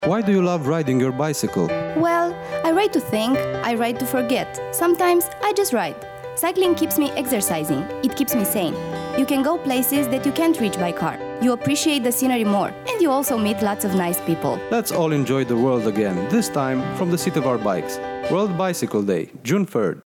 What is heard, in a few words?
Vox Pop; bicicleala de luni; ziua mondiala a bicicletei